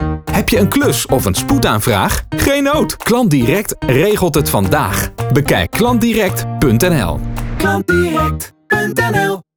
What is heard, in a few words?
Commercial; Klantdirect punt NL